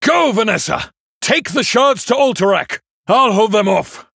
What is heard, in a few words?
Male Human; Edwin; Scabbs